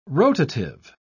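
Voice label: "rotative", British English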